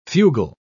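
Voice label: "fugle", British English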